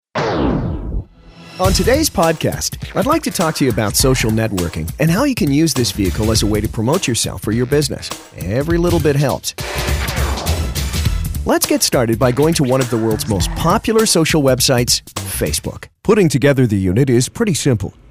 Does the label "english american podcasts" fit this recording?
Yes